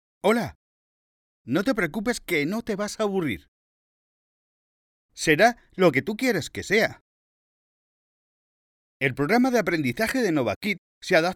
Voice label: spanish video games

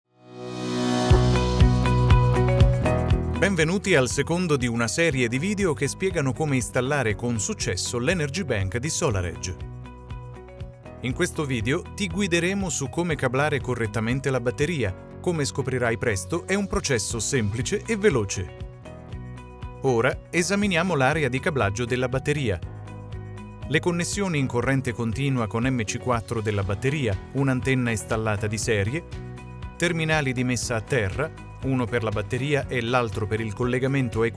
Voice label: italian explainer videos, voice actor; Padova, in Italy

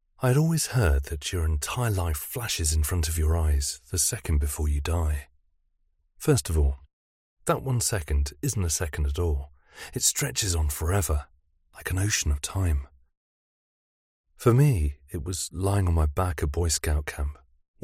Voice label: English british narration

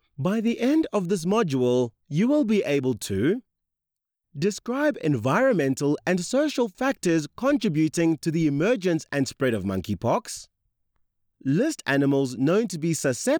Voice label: english south african e-learning; professioneller Voice-Over-Artist und Produzent

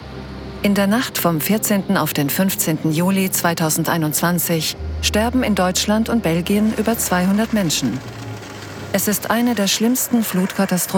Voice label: german documentaries; native in both German and Norwegian

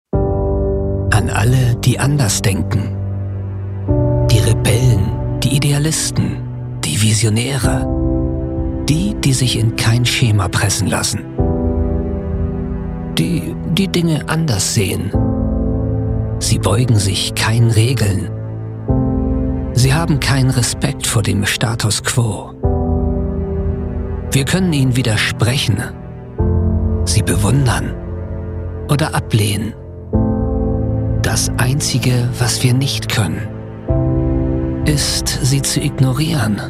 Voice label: german narration

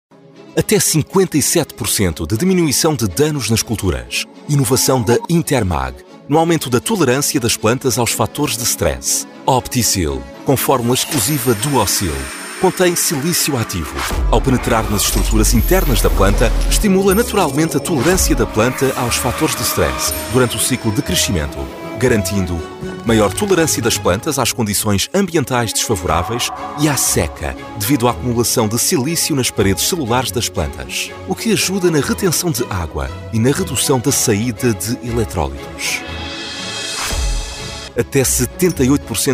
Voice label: portuguese commercial

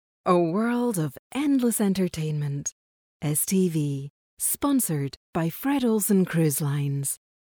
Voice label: english scottish promos

